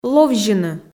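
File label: "ловзьыны", Komi, female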